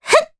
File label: Landing, jp; Mediana; kingsraid